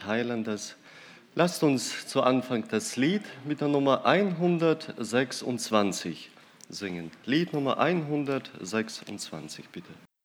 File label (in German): Ansage; ER gibt der Gemeinde Gaben